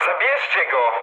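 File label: aircraft damaged, mood low, Polish speech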